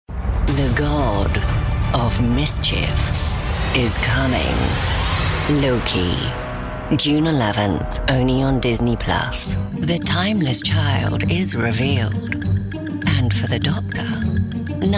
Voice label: PROMO

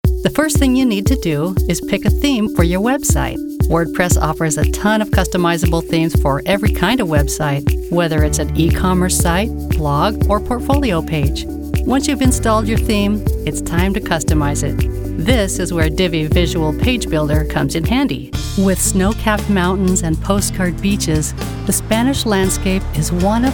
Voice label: Narration

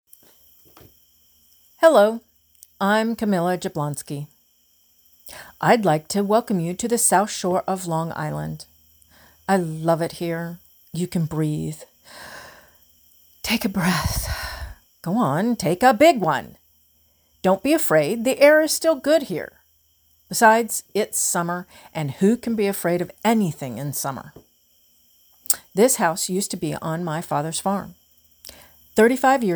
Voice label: monologue

